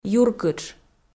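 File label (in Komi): "юркытш" Komi, female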